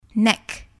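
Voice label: "neck", English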